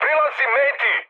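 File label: Serbian near waypoint mood high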